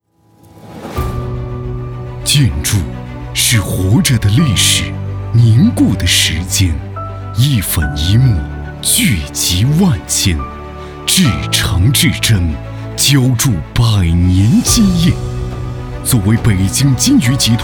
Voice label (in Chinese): 建筑是活着的历, 专题片/宣传片-普通话-男-真人商业配音